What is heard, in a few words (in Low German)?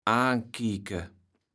"aankieke", kaevels platt